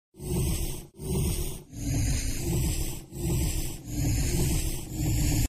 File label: vampire hurt; race